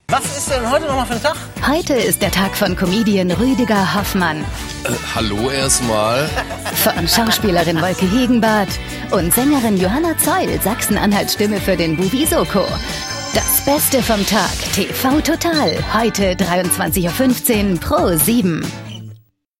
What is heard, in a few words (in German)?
Sprecherin, Trailer; TV Total; Pro7